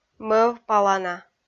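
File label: "мӧвпалана", Komi-Zyrian, female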